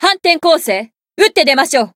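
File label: Voice variable chant, Japanese; herena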